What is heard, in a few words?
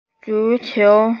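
"zu2qiu2", Mandarin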